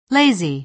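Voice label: "lazy", American English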